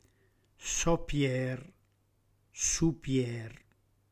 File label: "supier" "sopier"; reggiane; dialetto